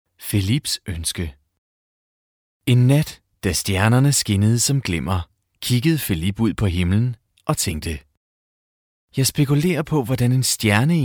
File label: audiobook, dk